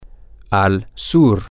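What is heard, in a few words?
toTheSouth, Spanish, male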